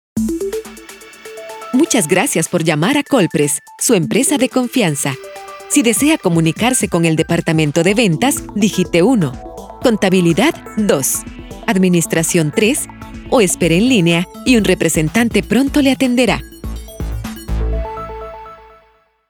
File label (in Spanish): Locucion Central Telefonica